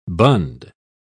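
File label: "bund", British English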